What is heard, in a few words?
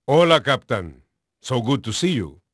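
Spanish male, voice; Pirates Of The Caribbean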